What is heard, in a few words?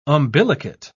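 "umbilicate", British English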